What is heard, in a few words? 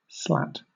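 "slat" English, British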